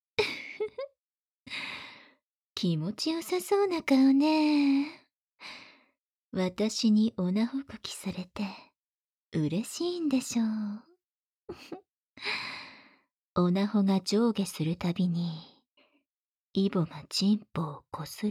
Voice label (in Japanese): エッチなお姉さんの吐息交じりの密着誘惑